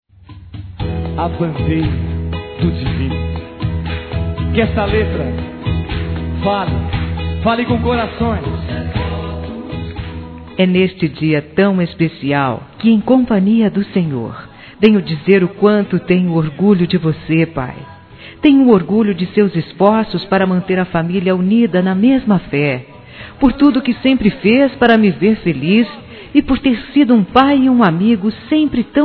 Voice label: aniv. Pai religioso f.

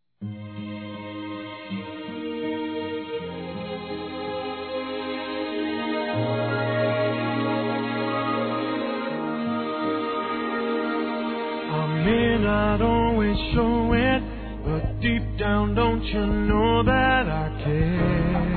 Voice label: Despedida Masculino; Telemensagens; Despedida Boas Vindas; Telemensagens 2017

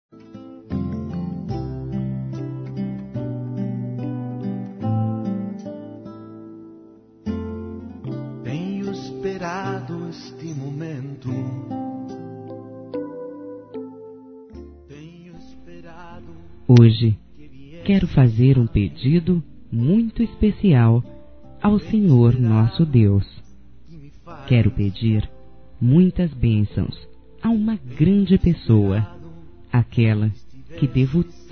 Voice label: Dia das Mães Religiosa Feminino; Telemensagens 2017

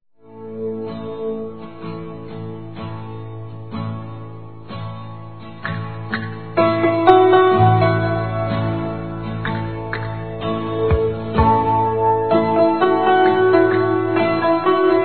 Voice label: ANIV. DE FILHO ADOTIVO (M); Telemensagens 2017; Aniversários; ANIVERSARIO ADOTIVOS

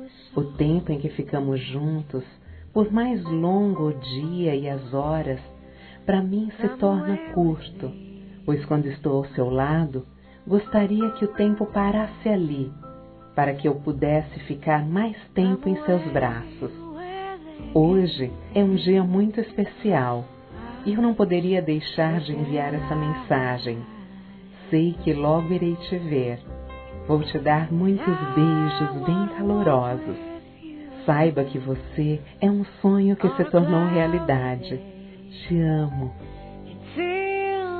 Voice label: Amor Verdadeiro Feminino, Telemensagens

